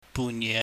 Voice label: "bunyeh", Cantonese